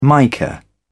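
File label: "mica", British English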